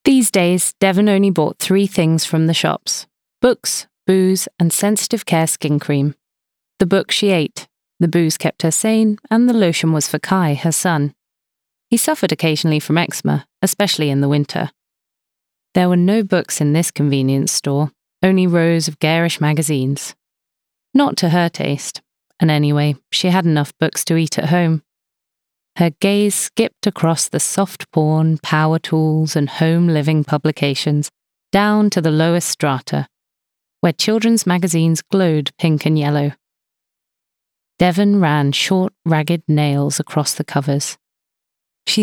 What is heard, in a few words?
audiobook